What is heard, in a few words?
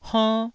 "honn1", Taiwanese syllable